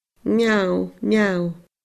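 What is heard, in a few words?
"miamh-miamh", Scottish Gaelic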